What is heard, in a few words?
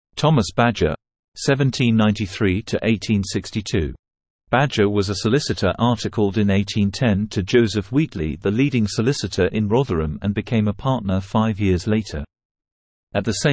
Male